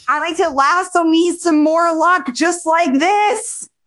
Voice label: happy, speech; fertig classic games; beth